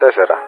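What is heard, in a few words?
Speech, Greek, 4 calm; DCS; Ka-50; Wingman 1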